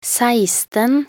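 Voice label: "seksten", Norwegian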